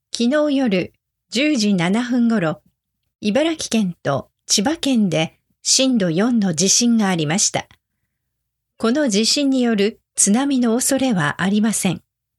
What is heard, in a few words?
news earthquake normal speed